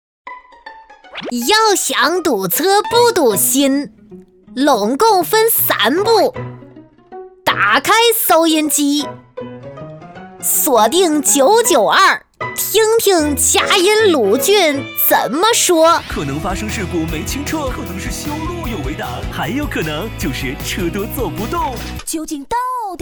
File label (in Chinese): 女 模仿; 宋丹丹要想不堵车